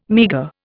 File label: "meagre", Indian